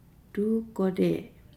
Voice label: "testicles", Nukuoro